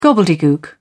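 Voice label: "gobbledegook", British English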